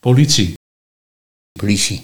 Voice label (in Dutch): "Politie - Pliesie", Nieuw lekkerlands dialect